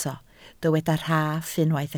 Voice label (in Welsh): "rhaff" Welsh